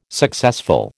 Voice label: "successful", American